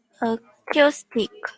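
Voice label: "acoustic", TWN, female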